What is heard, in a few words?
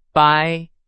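Chinese, vocabulary; hsk4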